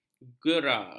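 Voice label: "gera", Malay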